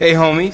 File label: "hey homie", voice